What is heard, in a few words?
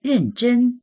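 "ren4zhen1", Mandarin Chinese; 认真; まじめである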